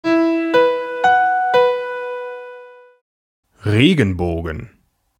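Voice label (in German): "Regenbogen", German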